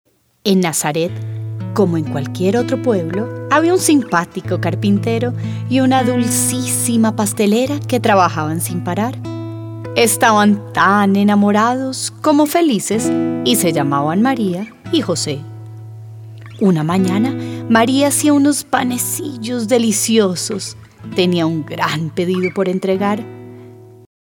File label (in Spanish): Narración; Harina y Ufa